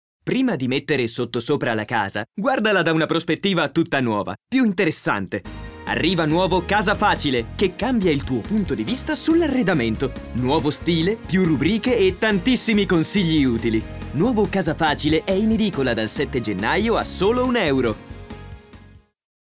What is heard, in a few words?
Italian, Male